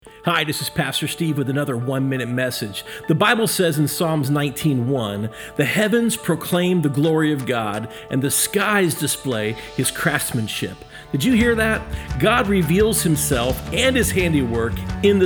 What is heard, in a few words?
Radio Spot